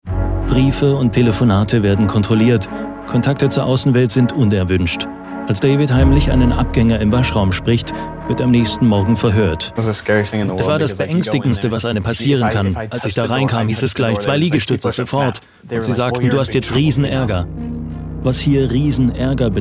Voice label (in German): Dynamisch